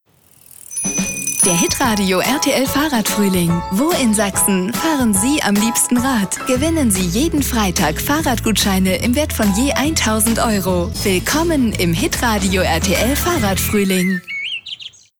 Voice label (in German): hitradio dynamisch; radiowerbung; rtl